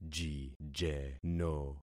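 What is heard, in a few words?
"jijeno", concatenated syllables; Affixing experiment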